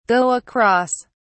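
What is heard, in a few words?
"go across", British English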